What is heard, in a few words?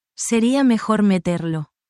spanish, spanish phrases